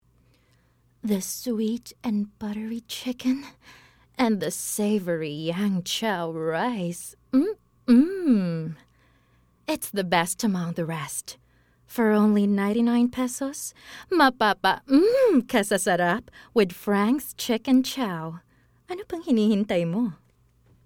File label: Taglish (Sultry, Inviting)